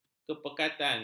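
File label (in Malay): "kepekatan", Malay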